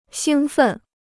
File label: "兴奋", Chinese